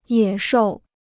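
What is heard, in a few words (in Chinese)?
"野兽" Chinese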